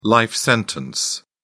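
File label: "life sentence", British English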